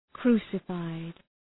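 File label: "crucified", English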